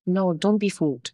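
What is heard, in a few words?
Voice Acting es, female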